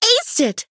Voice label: Female Human Attack